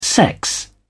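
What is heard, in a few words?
"sex", British English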